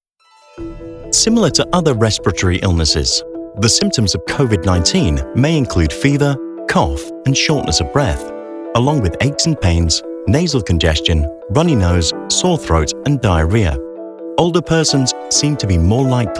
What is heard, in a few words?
E-LEARNING voiceover; COVID 19